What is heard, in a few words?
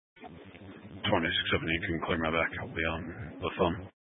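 Sheriff Dispatch, Scanner audio recording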